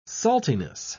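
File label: "saltiness", British English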